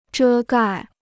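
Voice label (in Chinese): "遮盖" Chinese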